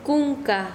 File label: Chanka, quechua; cuello